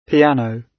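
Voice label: "piano", British English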